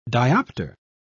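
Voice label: "dioptre", British English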